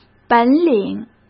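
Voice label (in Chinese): "本领", Mandarin Chinese; chinese flashcards; hsk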